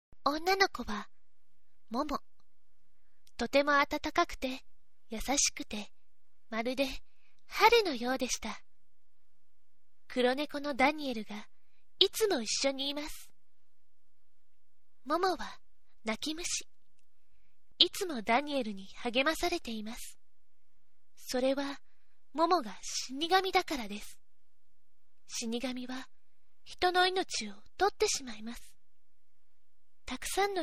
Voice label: voice onnna